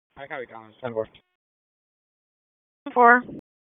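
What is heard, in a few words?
Raleigh Police Northeast District Dispatch, p25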